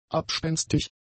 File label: "abspenstig", German; adjectives